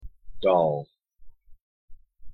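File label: "doll", English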